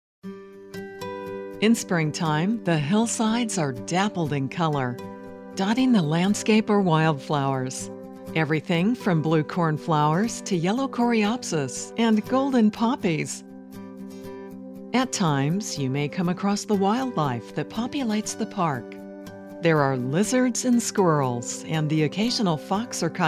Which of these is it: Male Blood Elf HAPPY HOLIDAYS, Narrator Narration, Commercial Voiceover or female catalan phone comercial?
Narrator Narration